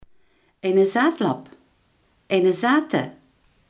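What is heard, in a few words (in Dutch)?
iemand die dronken is, Limburgse gesproken woordenboeken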